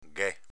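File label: "ghè", Venetian dialect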